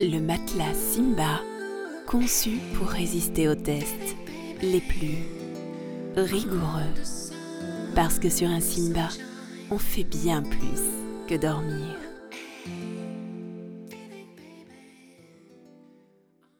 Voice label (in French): PUB suave, voix off